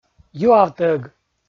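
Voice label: "юавтӧг", Komi, male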